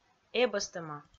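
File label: "эбӧстӧма", Komi, female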